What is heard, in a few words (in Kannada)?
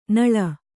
"ನಳ", Kannada